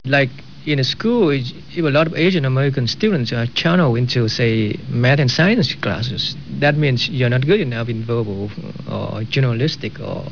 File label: Vietnamese